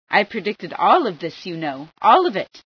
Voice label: i predicted this, female sci